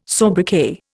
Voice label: "sobriquet", Indian

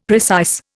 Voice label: "precise", Indian; Dictionary

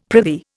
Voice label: "prithee", Indian; Dictionary

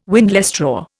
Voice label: "windlestraw", Indian

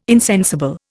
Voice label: "insensible", Indian

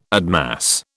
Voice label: "admass", British

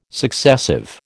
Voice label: "successive", American

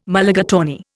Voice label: "Mulligatawny", Indian

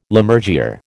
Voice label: "Lammergeyer", American

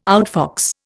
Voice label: "outfox", Indian

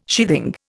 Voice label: "sheathing", Indian

